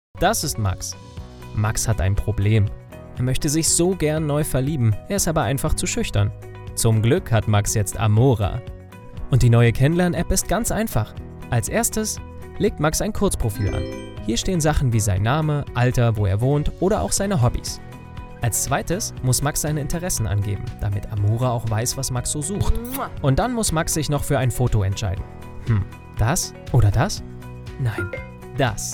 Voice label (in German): Erklaerfilm; AMORA